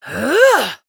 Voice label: Female Human Attack

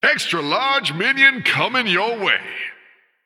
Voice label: Male Human Recruit Large Minion

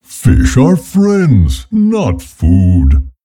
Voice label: Male Tauren

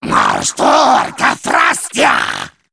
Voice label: male sporeling, Play

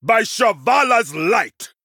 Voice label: Male Troll Attack

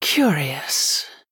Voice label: Female Human Thinking

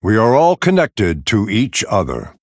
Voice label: Male Night Elf Bark

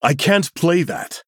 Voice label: Male Human; Arthas

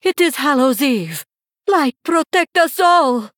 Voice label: Female Draenei HAPPY HALLOWEEN

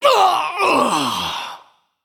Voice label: Male Nightborne Death

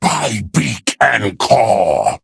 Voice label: Male Arakkoa Attack

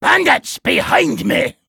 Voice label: Crusty Dwarf Attack

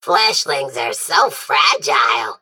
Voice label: Male Mech

